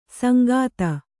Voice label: "ಸಾಂಗತೆ", Kannada